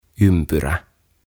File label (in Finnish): "Ympyrä" Circle; OTAVA KONSERNI